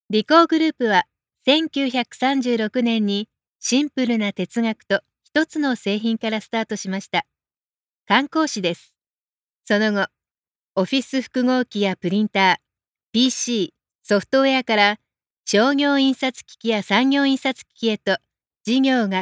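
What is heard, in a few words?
Japanese, female, corporate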